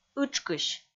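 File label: "ытшкысь", Komi, female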